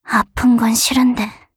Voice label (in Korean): attacked, KOR; Voice lIne; Eternal Return; Katja